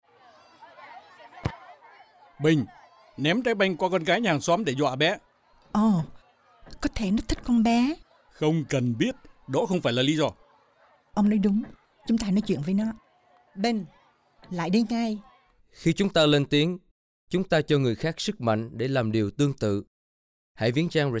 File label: Radio Vietnamese; CALD